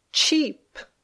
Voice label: "cheap", English

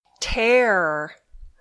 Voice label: "tear", English

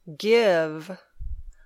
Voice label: "give", English

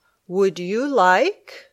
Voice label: "Would you like", English

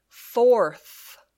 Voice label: "fourth", English

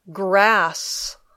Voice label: "grass", English

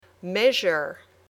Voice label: "measure", English

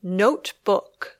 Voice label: "notebook", English

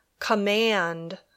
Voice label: "command", English

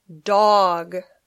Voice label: "dog", English

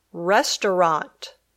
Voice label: "restaurant", English

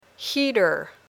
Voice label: "heater", English

